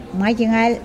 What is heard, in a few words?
intestines, Mokilese